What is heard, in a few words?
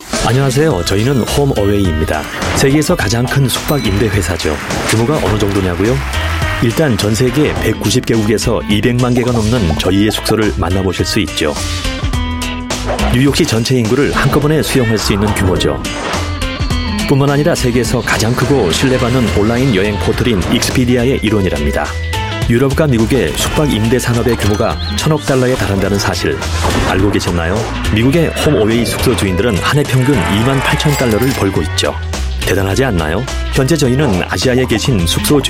Korean Male TVC; HomeAway